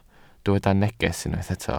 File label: "neges", Welsh